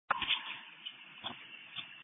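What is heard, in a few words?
Police Patrol (Dispatch)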